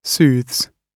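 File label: "soothes", British English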